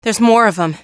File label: combat gradual quiet; hl2; ep1; alyx